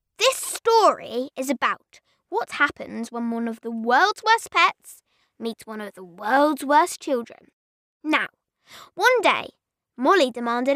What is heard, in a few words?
Narration; Worlds Worst Pets